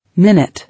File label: "Minute", English